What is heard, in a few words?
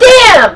fem damn, voice; lmctf